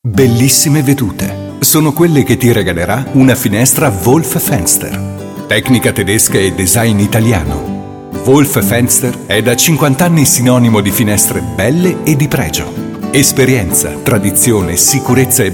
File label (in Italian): Spot ital